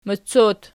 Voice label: "mocot", Archi